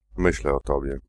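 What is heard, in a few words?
thinking of you, male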